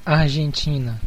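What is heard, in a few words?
"argentina", Brazilian Portuguese; gcompris; geography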